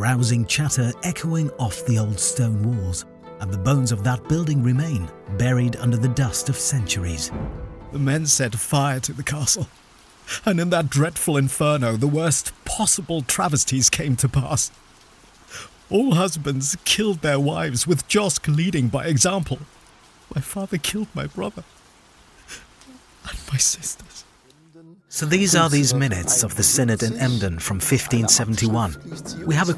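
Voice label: English Museum and Heritage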